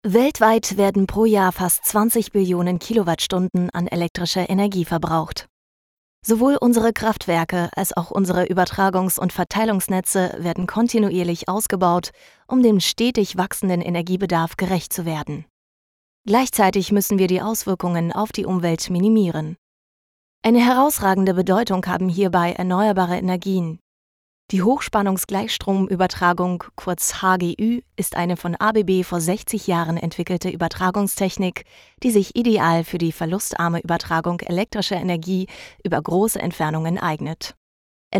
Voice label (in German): deutsch frauen lehrfilm; sprecher